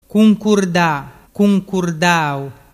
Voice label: "cuncurdadau", dialettu

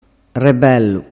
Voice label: "rebellu", dialettu

